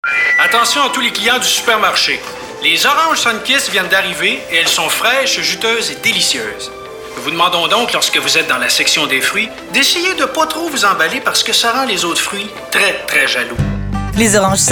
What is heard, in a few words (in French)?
voix femmes; sunkist